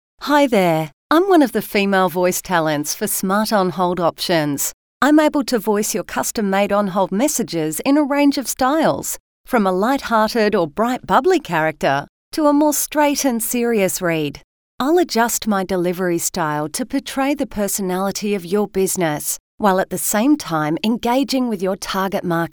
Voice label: FEMALE